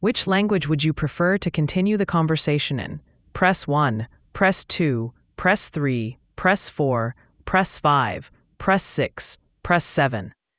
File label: question english